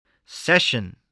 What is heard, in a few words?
"session", spelling